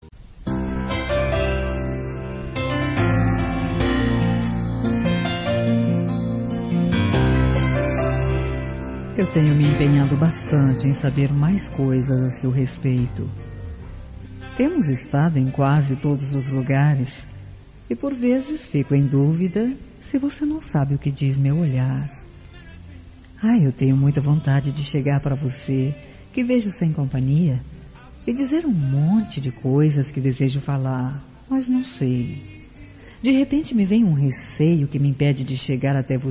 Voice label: CONQUISTA ANONIMA FEM; Telemensagens; Conquistas Cantadas paqueras; Telemensagens 2017

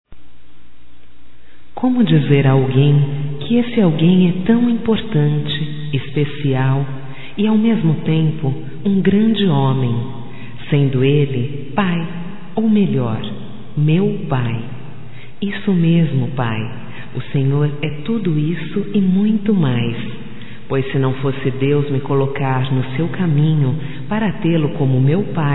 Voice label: voz, fem; Aniversßrio pai; Telemensagens 2017; Só Voz

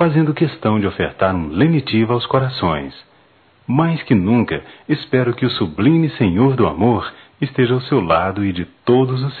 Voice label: Páscoa Neutra

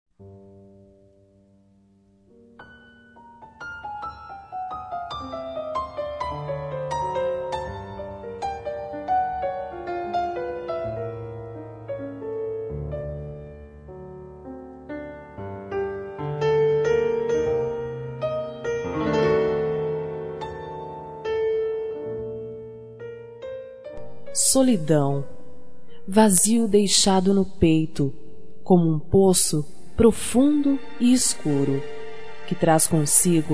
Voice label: ROM SOLIDAO FEM

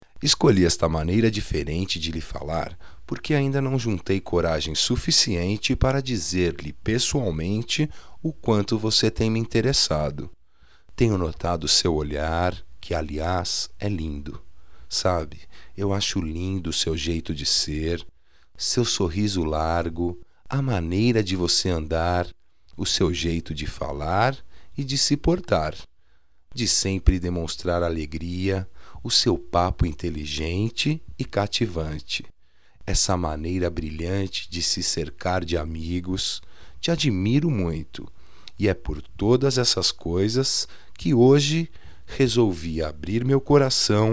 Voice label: Admirador sercreto, masc

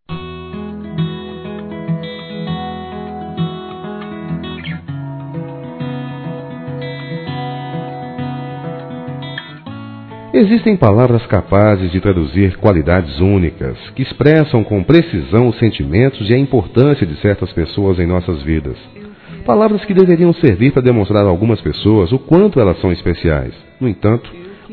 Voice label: homenagem geral masc; Homenagens

